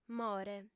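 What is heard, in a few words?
"more" Sardinian, female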